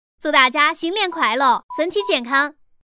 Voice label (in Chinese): 成都混音